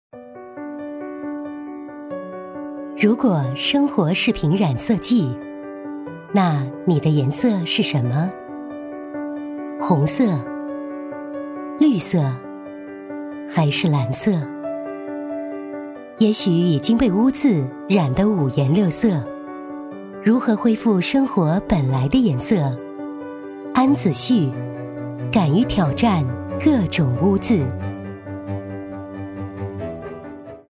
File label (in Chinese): 女 广告 洗护用品